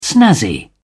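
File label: "snazzy", British English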